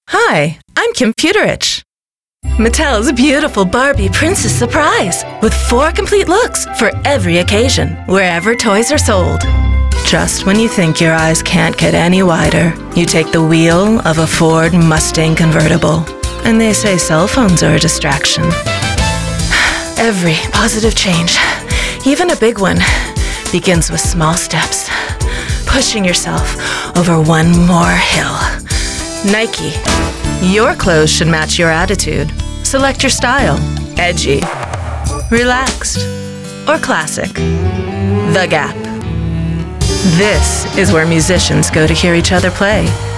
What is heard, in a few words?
COMMERCIALS VO